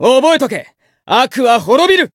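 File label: Voice win, Japanese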